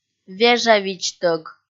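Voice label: "вежавидзтӧг", Komi, female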